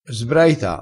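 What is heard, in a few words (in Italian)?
"sbraita", dialettale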